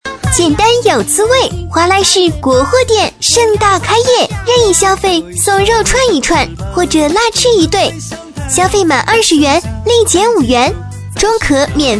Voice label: 童声开业

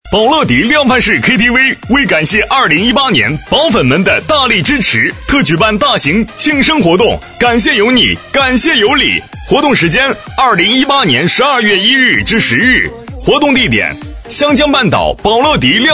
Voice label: 男声; 宝乐迪

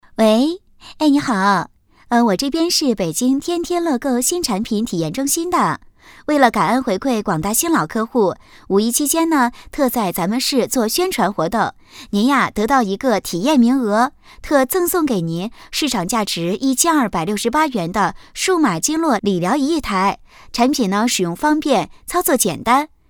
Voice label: 女声电话配音

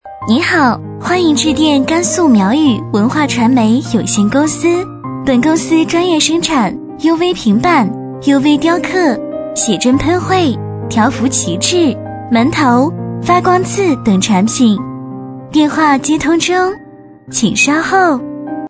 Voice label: 女声【彩铃】温柔甜美

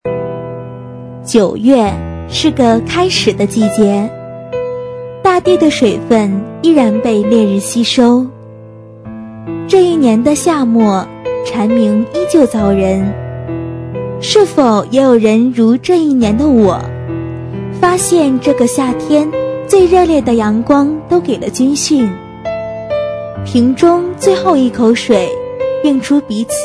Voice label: 抒情 旁白 深情; 专题; 军训短片电影旁白

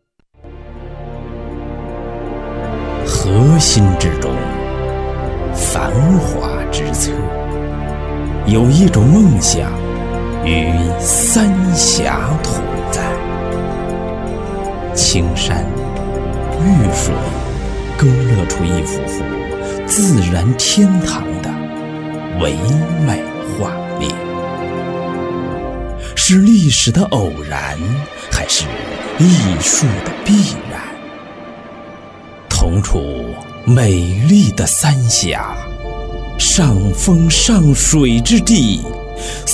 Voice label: 广告地产; 三峡集锦

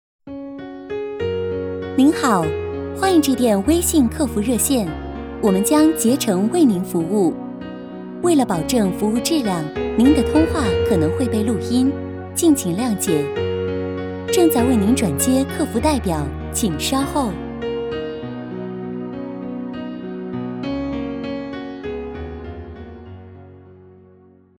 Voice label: 女声彩铃中文微信客服自然陈述

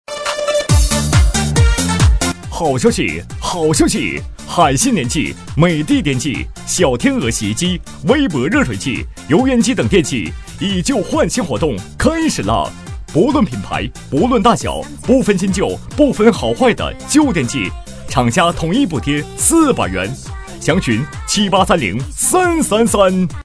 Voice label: 激情促销男声

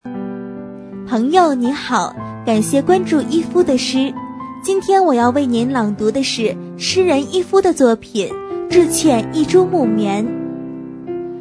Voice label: 朗诵; 失策先生

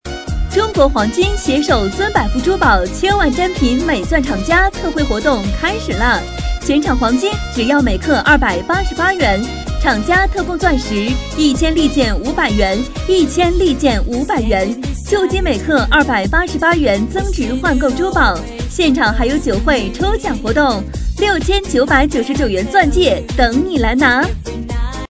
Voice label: 珠宝广告

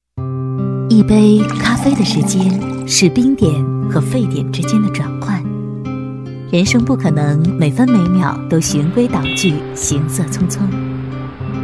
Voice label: 广告咖啡

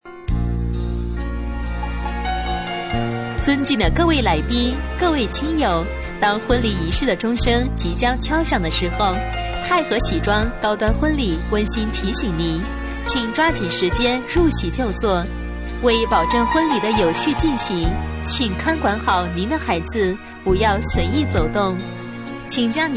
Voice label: 女声【婚礼司仪】; 太和喜庄

